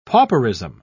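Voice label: "pauperism", British English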